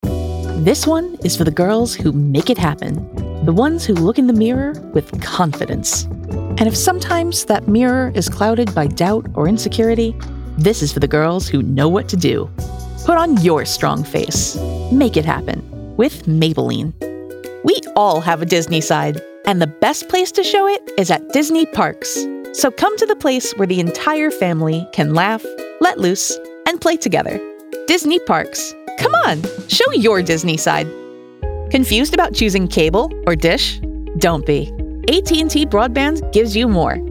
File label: Commercial